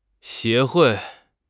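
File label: "协会", Mandarin Chinese; HSK6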